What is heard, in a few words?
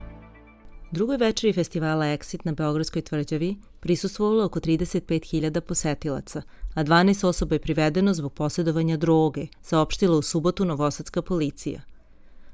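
Serbian, General; GoLocalise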